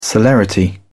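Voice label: "celerity", British English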